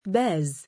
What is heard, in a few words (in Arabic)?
"baaz", male; Arabic; given name